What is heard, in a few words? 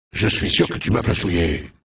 Hero Lich Pissed; Warcraft 3